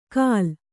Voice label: "ಕಾಲ್", kannada

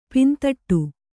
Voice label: "ಪಿಂದೊಡೆ", kannada

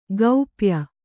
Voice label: "ಗೋಪ್ಯ", Kannada

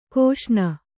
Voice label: "ಘೋಸನೆ", Kannada

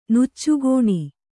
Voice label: "ನುಚ್ಚುಗೋಣಿ", Kannada

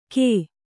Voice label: "ಘೇ", Kannada

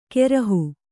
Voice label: "ಕೆರಹು", Kannada

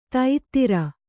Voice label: "ತೈತ್ತಿರ", Kannada

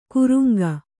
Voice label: "ಕೂರಂಗಿ", kannada

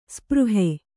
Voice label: "ಸ್ಪೃಹೆ", kannada

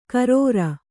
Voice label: "ಖರಾರಿ", Kannada

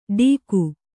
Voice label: "ಢೀಗು", Kannada

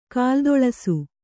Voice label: "ಕಾಲ್ದೊಳಸು", kannada

